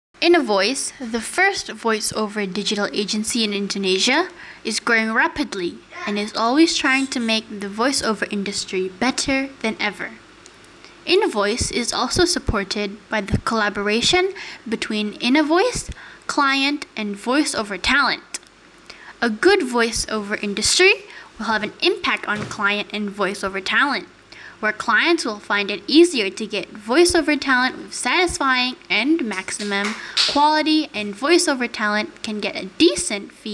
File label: English Formal